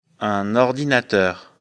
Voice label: "ordinateur", lecture fr; afrikalan

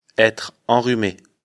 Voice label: "enrhume", lecture fr; afrikalan

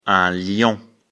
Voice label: "lion", lecture fr; afrikalan

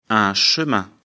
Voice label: "chemin", lecture fr; afrikalan

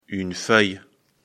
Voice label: "feuille", lecture fr; afrikalan

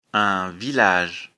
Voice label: "village", lecture fr; afrikalan

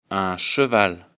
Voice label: "cheval", lecture fr; afrikalan

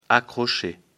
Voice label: "accrocher", lecture fr; afrikalan

